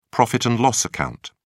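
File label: "profit and loss account", British English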